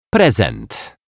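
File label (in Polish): "prezent", Polish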